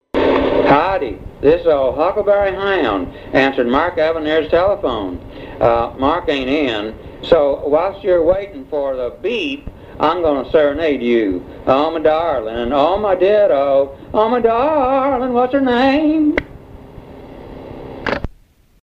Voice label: answering machine messages; answering machine messages of the stars